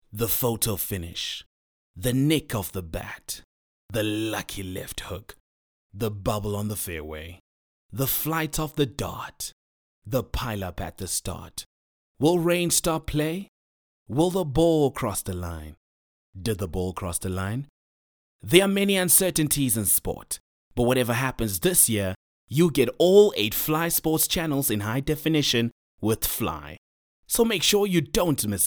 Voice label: English skill promo dramatic; OSM Talent

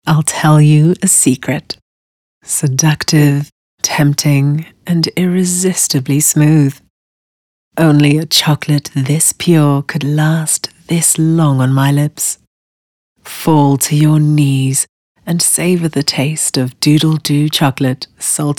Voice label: English Soft Sell

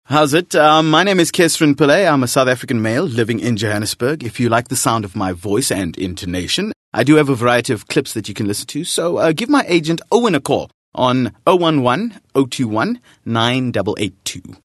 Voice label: English Conversational

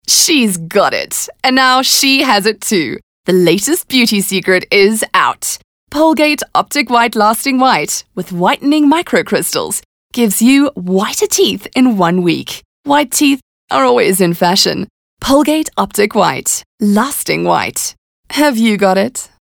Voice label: English Hard Sell

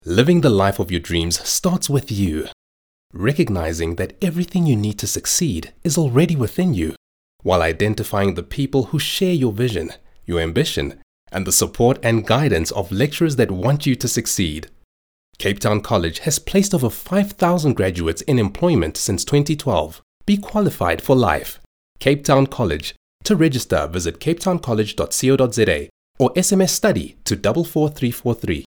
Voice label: English Corporate; OSM Talent

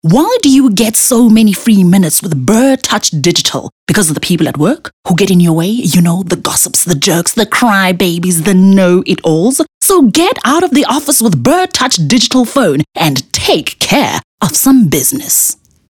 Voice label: English bright

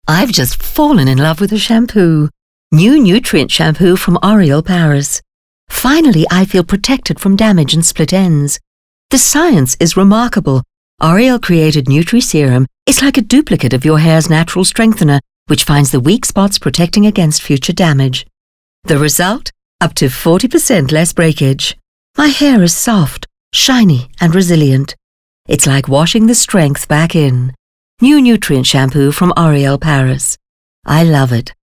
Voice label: English Conversational

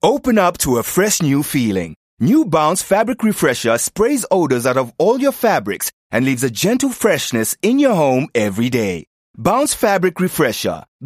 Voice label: English Bright

